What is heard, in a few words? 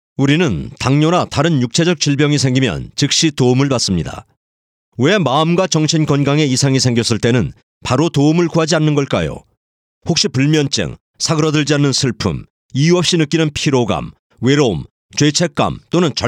Korean Radio Ad